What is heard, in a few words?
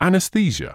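"anaesthesia", British English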